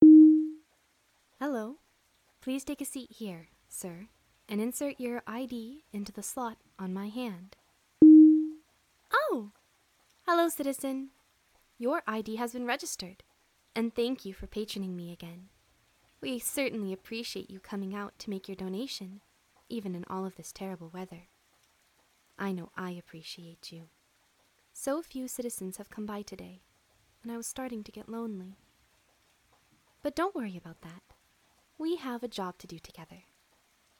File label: F4M Robotic rain; A Robotic Donation; Hand Job; Future